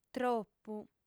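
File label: "tropu", lingua sarda, female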